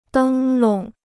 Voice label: "灯笼", Chinese

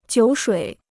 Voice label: "酒水", Chinese

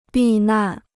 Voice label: "避难", Chinese

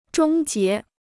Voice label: "终结", Chinese

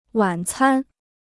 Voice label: "晚餐", Chinese

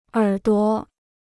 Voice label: "耳朵", Chinese

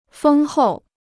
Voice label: "丰厚", Chinese

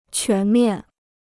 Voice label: "全面", Chinese

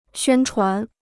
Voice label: "宣传", Chinese; xuān chuán; to disseminate; to give publicity to

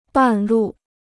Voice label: "半路", Chinese

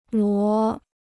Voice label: "罗", Chinese

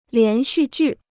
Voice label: "连续剧", Chinese

